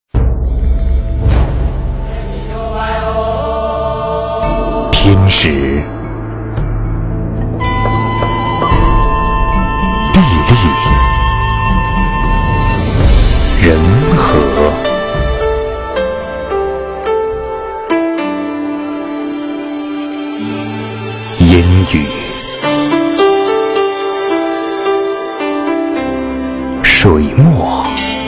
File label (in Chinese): 广告, 酒类, 磁性; 好梦缘酒